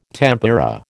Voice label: "tempera", American

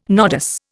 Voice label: "nodus", Indian

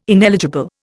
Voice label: "ineligible", Indian

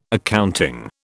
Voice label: "accounting", British

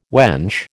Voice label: "wench", American

